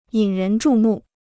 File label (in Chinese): "引人注目", Chinese